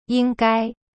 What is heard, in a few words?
"应该 ying gai", Chinese; cyberchinese